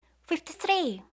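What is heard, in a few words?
"53", English; eng suuji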